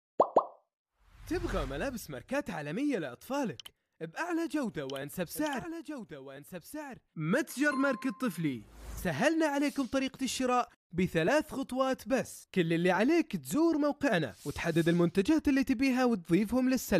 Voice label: Saudi